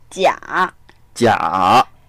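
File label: "jia3" Mandarin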